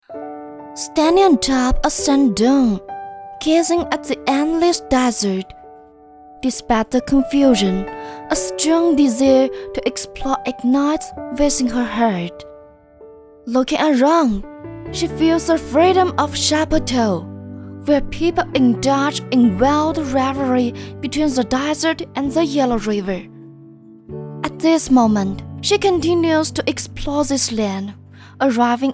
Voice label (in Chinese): 女 英 少女感